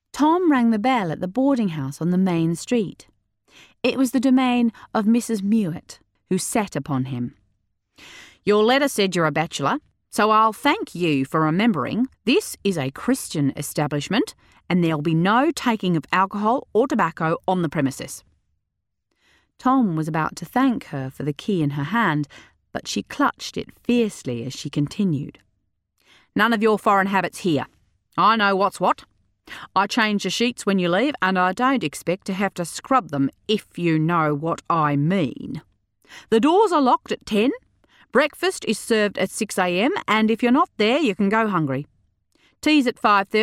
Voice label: Audiobook Australian; The Light Between Oceans